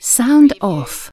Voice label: UK female "sound off"; lynne publishing